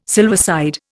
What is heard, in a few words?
"silverside", Indian